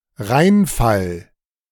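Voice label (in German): "Rheinfall", German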